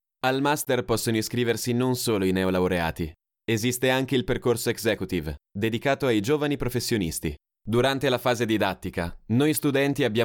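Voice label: corporativo, Italian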